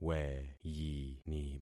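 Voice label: "weyini", concatenated syllables; Affixing experiment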